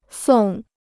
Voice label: "送", Chinese